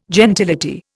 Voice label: "gentility", Indian